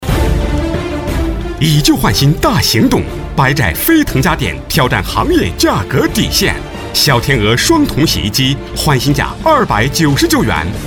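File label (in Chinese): 促销广告家电; 飞腾家电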